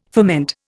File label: "ferment", Indian